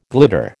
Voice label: "glitter", American